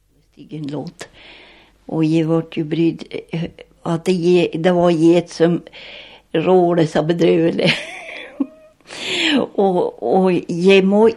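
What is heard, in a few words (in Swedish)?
Revsund Jämtland, Svenska sagor, dialekter; Folkminnen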